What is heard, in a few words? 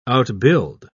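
"outbuild", British English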